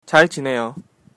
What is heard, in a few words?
I'm fine, korean